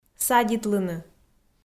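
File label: "садитлыны", Komi, female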